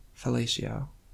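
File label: "fellatio", US English; pronunciations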